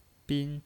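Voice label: "bin", Mandarin Chinese, first tone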